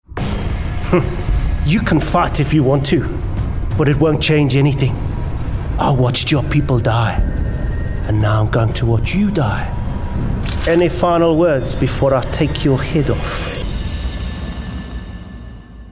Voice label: Gaming Villain South African; Gaming Character